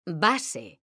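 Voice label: "base", Spanish, female; mekanta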